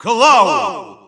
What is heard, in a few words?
Russian Announcer; Cloud; SSBU